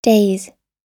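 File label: "daze", US English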